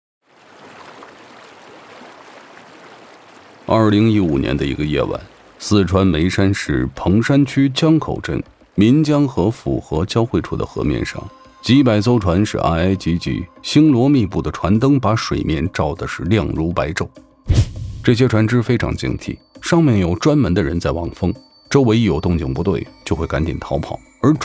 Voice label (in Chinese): 悬疑深沉探案纪实配音